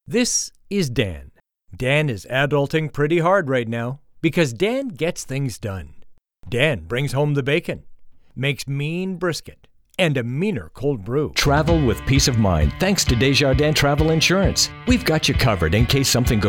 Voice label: Commercial